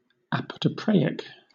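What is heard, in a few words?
"apotropaic", British English; pronunciations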